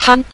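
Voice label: "han", Mandarin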